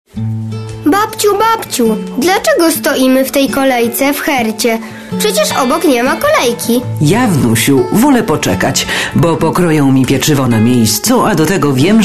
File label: POLISH boy, children